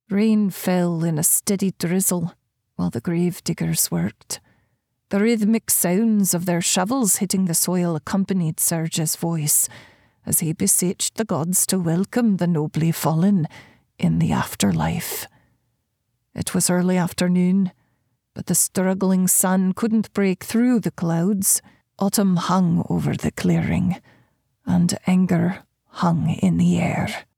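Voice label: Scottish Accent, Female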